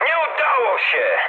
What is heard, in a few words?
bomb failure mood high, speech pol; War Thunder